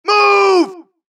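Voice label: suppress, dialogue, Allies; mohaa; Mission 2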